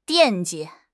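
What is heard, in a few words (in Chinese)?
"惦记", Mandarin Chinese; HSK6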